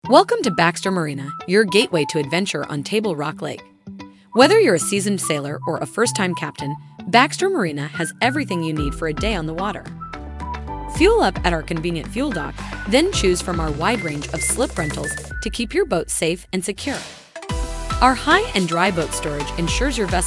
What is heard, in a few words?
radio ad